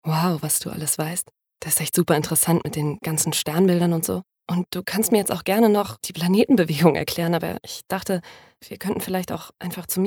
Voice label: Sexy